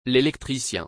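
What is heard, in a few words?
"L'électricien", French; L'électricien (m.); برق کار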